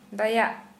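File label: Santali female kind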